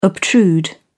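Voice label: "obtrude", British English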